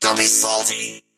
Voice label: hurt